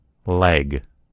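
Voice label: "leg", US English; gcompris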